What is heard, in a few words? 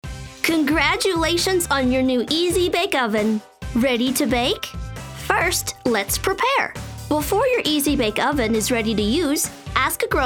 ELEARNING